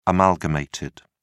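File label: "amalgamated", English, British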